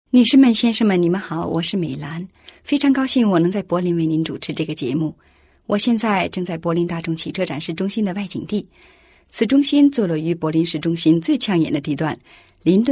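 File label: Chinese mandarin; sprecher; chinesisch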